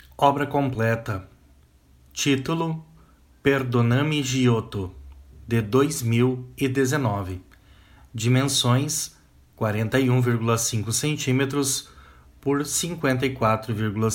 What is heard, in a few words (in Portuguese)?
audiodescrição; Obra completa; galeria virtual